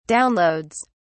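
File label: "downloads", British English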